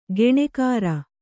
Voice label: "ಗುಣಕಾರ", Kannada

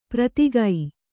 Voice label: "ಪ್ರತಿಗೈ", Kannada

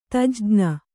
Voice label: "ತಜ್ಞ", Kannada

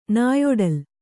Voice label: "ನಾಯೊಡಲ್", Kannada

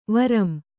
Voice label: "ವರಂ", Kannada

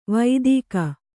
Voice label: "ವೈದಿಕ", Kannada

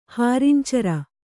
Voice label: "ಹಾರಿಂಚರ", Kannada

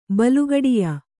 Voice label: "ಬಲುಗಡಿಯ", Kannada; balugaḍiya; noun

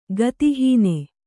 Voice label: "ಗತಿಹೀನೆ", Kannada

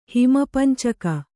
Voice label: "ಹಿಮಪಂಚಕ", Kannada

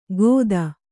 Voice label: "ಖೋತ", kannada

